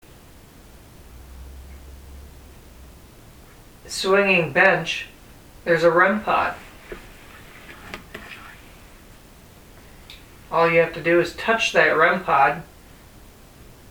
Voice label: Whispering; EVP